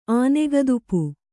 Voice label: "ಆನೆಗದುಪು", Kannada